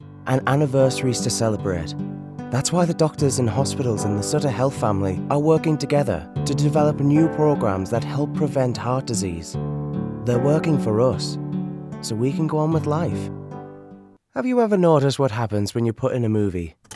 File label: british